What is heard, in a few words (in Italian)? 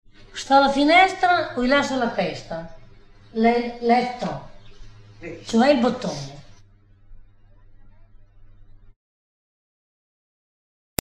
indovinello, romagna